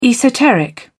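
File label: "esoteric", British English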